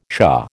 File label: "shah", American